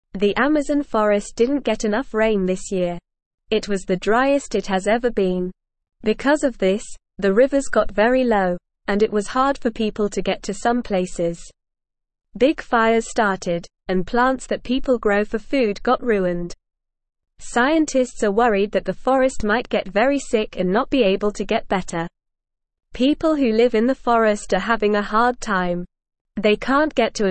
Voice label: English Newsroom Beginner NORMAL Reading

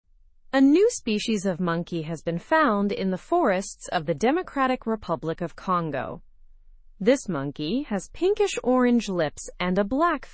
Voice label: english through news lower intermediate; unique monkey species found in drc forest

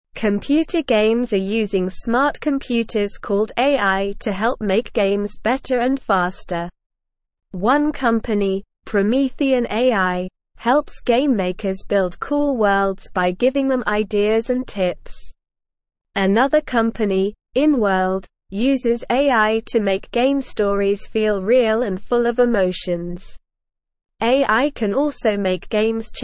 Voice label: English Newsroom Beginner SLOW Reading; AI Makes Games Better and More Fun

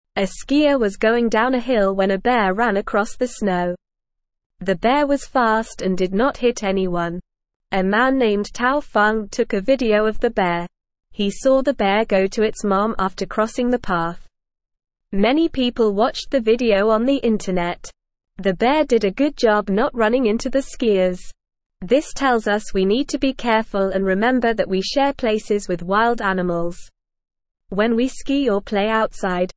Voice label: Beginner NORMAL Reading, English

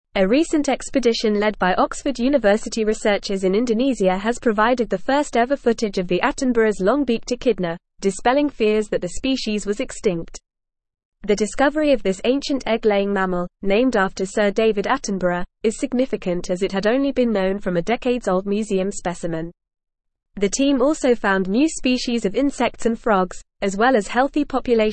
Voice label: English Newsroom Advanced FAST Reading; Rediscovery of Attenboroughs Long Beaked Echidna Sparks

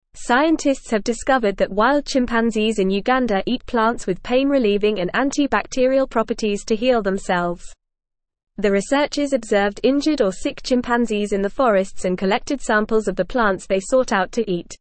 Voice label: English FAST Reading; Wild Chimpanzees in Uganda Use Plants with Medicinal Properti

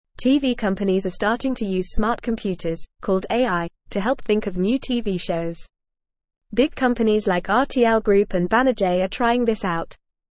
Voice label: English Newsroom Beginner FAST Reading; TV companies try using smart computers to make shows

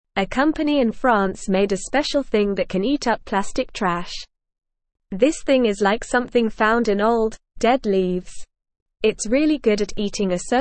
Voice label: English Lower Intermediate NORMAL Reading; Amazing Plastic Eating Thing Helps Make New Plast

